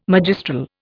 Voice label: "magistral", Indian